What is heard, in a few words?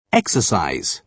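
"exercise", British English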